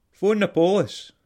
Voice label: police scots